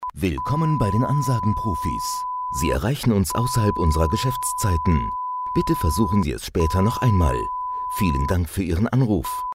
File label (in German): Sprecher piep Ansage